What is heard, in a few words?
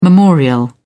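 "memorial", British English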